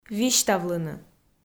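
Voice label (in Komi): "висьтавлыны", Komi, female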